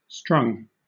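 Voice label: "strung", British English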